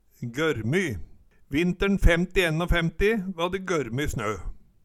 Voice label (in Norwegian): gørrmy, Numedalsmål